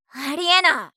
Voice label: "ありえない", 相づち・反応系【ネガティブ】

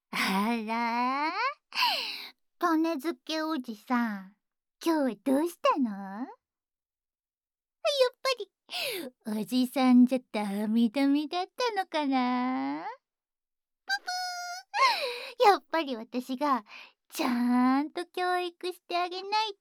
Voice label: フリートーク; ASMR

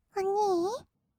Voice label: おにぃ, kawaii voice; ASMR; 二人称; 1200 kawaii voice!!

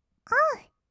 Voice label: "おう", kawaii voice; 1200 kawaii voice!!; 色々なワンショット

